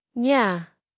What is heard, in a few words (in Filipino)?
"niya", Tagalog; tagalog pronouns